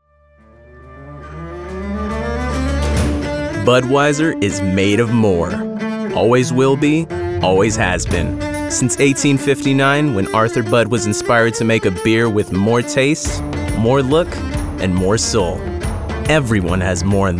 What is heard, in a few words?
Commercial